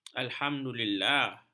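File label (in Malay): "alhamdulillah", Malay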